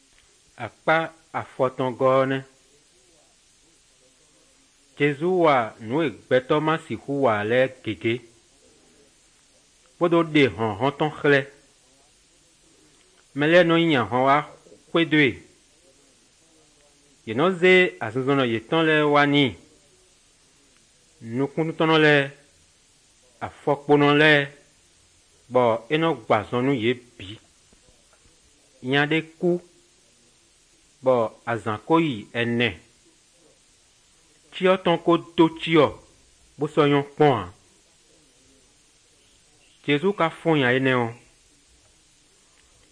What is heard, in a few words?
good news, fon fongbe bible drama